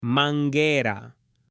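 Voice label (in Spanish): "manguera", Spanish, male; OBJETOS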